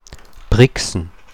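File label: Brixen dialect; Brixen Mundart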